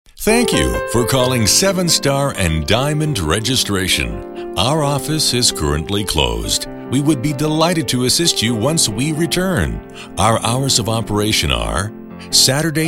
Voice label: Interactive Voice Response, english american; General public